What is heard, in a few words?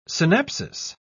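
"synapsis", English, British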